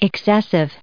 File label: "excessive", us